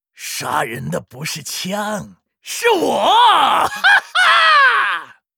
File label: Rifleman pissed, 矮人火枪手; 矮人火枪手（魔兽争霸3）